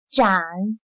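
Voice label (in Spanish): "展", chino mandarin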